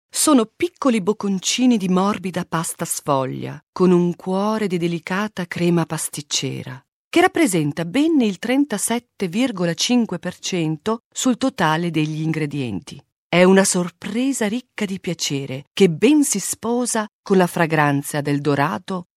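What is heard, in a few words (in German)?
italienisch, Telefonansagen, weiblich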